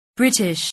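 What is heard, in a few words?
"british", English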